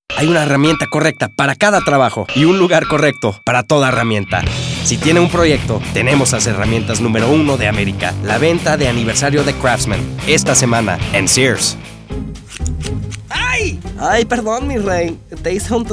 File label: spanish males